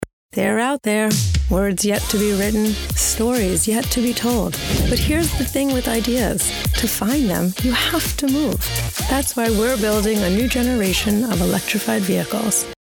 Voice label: commercial; kia